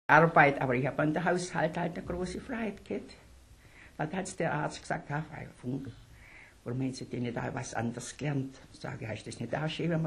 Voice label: Suedfraenkisch; Oberdeutsch

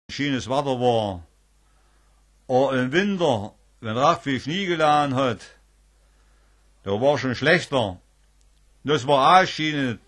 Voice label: Obersaechsisch; Mitteldeutsch

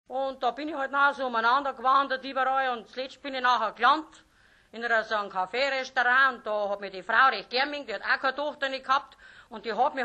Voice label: Mittelbairisch, Oberdeutsch

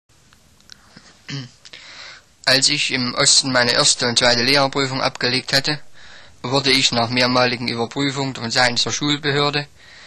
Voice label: Thueringisch Westthueringisch; Mitteldeutsch

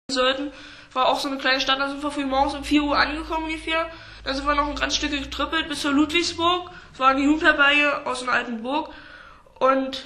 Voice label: Maerkisch, Berlinerisch; Mitteldeutsch

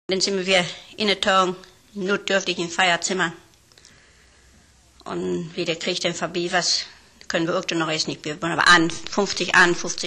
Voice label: Ostfaelisch, Niederdeutsch

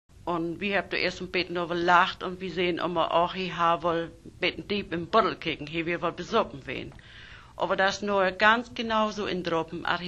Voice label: Nordniedersaechsisch, Niederdeutsch

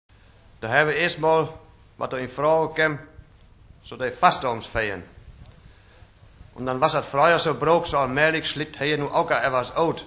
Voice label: Westfaelisch; Niederdeutsch

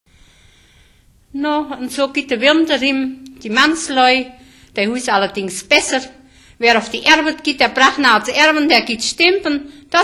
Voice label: Rheinfraenkisch; Mitteldeutsch

